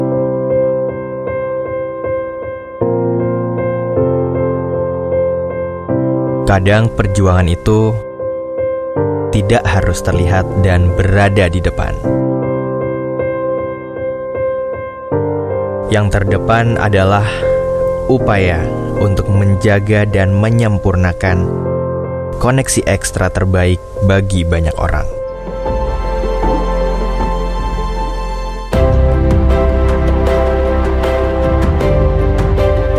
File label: Bahasa Indonesia Video; XL Presents